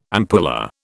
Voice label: "ampulla", British